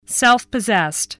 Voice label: "self-possessed", english spelling

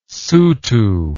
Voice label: "sotho", english

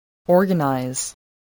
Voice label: "organize", English voice

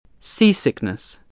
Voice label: "seasickness", english spelling

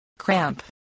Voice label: "cramp", voice spelling

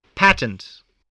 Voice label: "patterned", English, voice

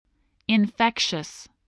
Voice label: "infectious", spelling voice

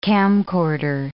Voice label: "camcorder", English

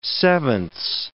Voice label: "sevenths", English

